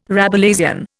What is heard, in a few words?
"Rabelaisian", Indian